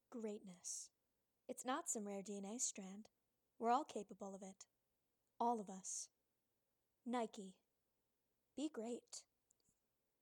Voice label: Commercial